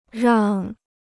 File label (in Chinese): "让", Chinese